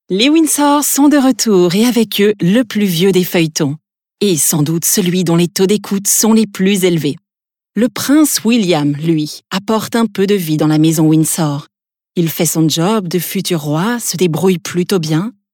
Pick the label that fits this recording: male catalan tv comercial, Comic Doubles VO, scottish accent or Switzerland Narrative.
Switzerland Narrative